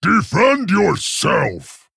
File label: Male Tauren Attack